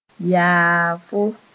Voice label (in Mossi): "yaafo", moore; mayfly